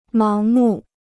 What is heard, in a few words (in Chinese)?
"盲目", Chinese; máng mù; blind; blindly